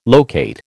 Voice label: "locate", American

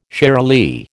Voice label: "shiralee", American

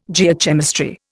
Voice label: "Geochemistry", Indian

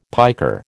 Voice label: "piker", American

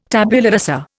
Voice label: "tabula rasa", Indian

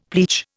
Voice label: "pleach", Indian; Dictionary

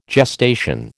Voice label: "gestation", American

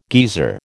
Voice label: "geezer", American

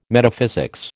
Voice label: "Metaphysics", American

